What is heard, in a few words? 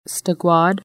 salmon berry, Lushootseed